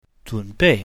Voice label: "zhun3 bei4", mandarin